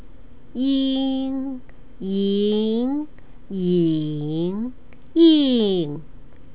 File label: "ying", Chinese; Chinese-English Bilingual Conversation